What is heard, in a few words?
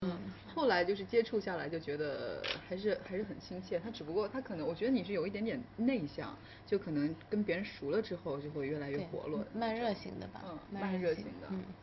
interview